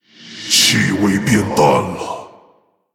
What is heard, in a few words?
Male Orc, The scent grows faint!; Running out of time; ▶气味变淡了。; zh; 死亡猎手雷克萨; Rexxar Deathknight